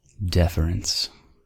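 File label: "deference", US English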